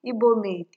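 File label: "igbomade", Nigerian Pidgin, female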